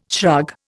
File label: "shrug", Indian